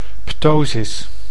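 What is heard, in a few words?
"ptosis", Dutch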